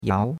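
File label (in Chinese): "yao2" Chinese; Cours de Chinois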